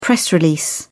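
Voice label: "press release", British English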